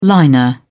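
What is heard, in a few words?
"liner", British English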